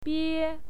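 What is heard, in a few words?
"bie", Mandarin Chinese, first tone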